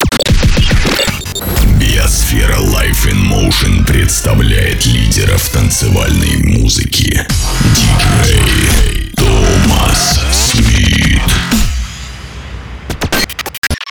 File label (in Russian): DJ ДЖИНГЛ